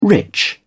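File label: "rich", British English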